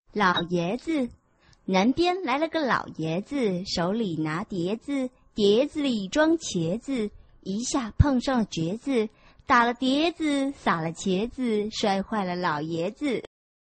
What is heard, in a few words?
chinese tongue twister